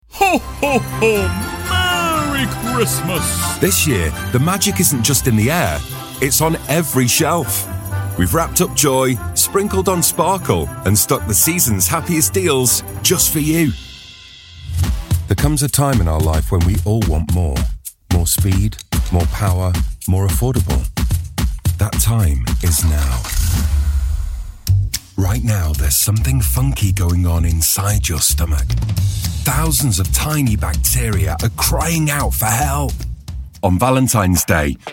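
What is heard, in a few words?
commercial, Gravitas Warm Trustworthy Voice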